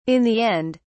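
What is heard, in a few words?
"in the end", British English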